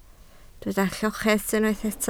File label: "lloches", Welsh